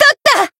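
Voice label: Voice finish, Japanese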